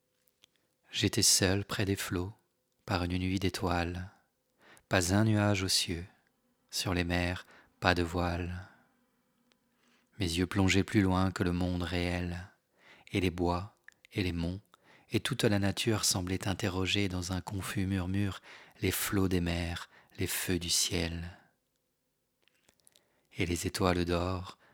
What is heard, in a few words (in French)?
extase voix brute, livre audio